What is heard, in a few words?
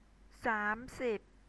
"saam sib" Thai